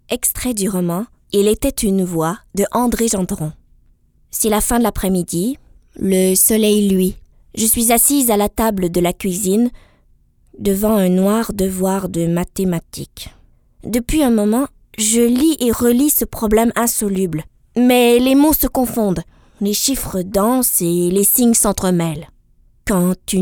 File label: Narration